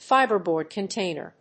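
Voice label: "fibreboard container", English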